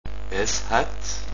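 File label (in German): "es hat", German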